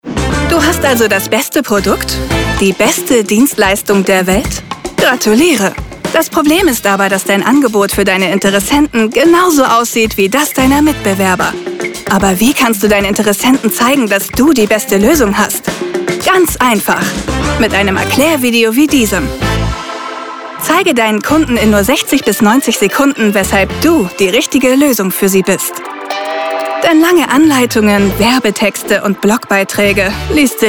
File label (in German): Erklaerfilm